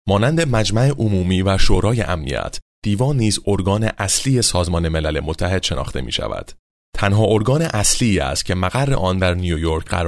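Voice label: Farsi, male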